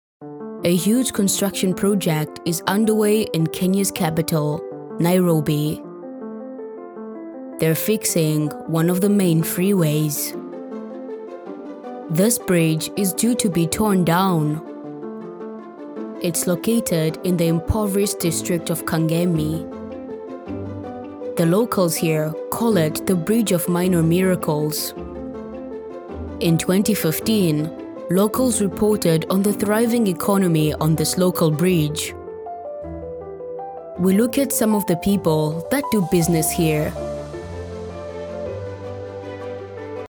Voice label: Kenyan